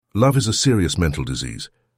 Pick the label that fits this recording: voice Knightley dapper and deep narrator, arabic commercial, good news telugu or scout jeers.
voice Knightley dapper and deep narrator